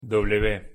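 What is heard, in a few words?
pronunciation "w", Spanish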